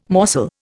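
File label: "morsel", Indian English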